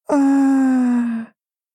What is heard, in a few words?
doubt